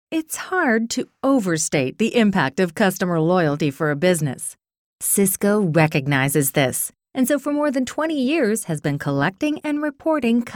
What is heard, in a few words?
us corporate